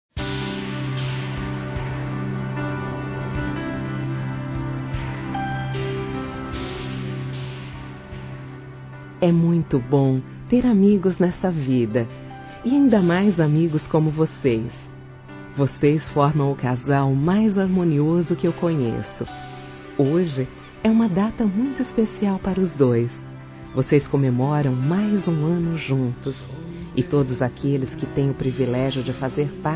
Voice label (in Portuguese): Romantica Aniversário Casamento (Plural) Feminino, Telemensagens; Românticas